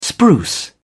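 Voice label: "spruce", English, British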